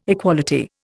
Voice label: "equality", Indian